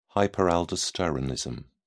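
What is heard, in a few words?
"hyperaldosteronism", British English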